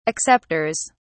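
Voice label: "acceptors", English, British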